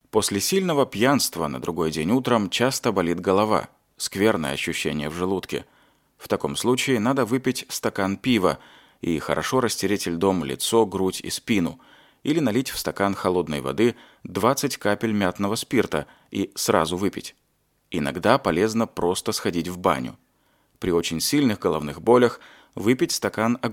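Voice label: Russian, male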